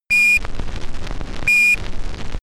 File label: astronaut chatter